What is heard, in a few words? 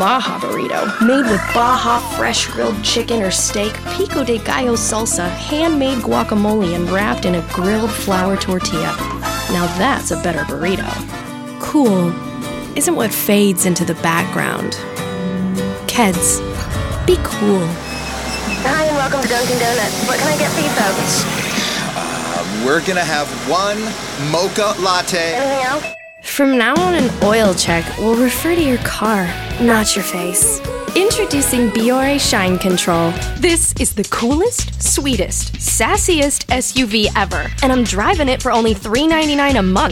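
Commercial, real people female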